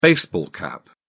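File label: "baseball cap", British English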